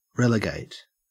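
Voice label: "relegate", Australian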